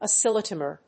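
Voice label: "oscillometer", English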